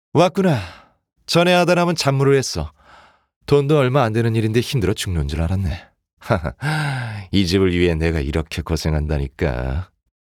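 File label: voice Korean welcome; Outsider; Aote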